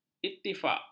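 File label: "ittifak", Malay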